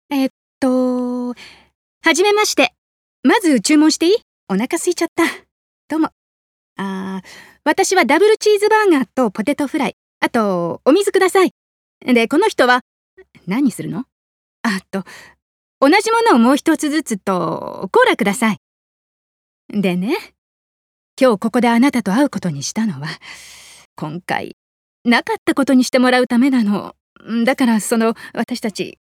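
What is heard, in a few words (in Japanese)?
婚約解消を切り出す女, ボイスサンプル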